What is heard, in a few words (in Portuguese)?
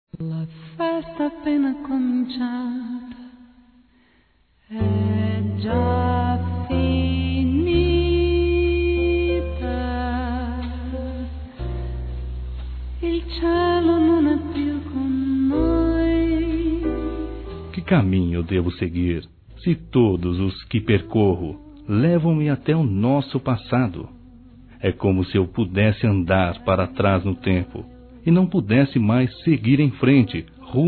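saudades de amor, Masculino; Telemensagens; Dia Dos namorados; Telemensagens 2017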